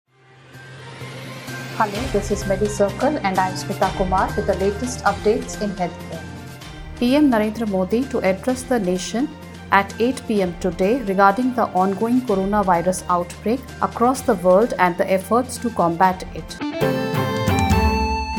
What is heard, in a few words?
english news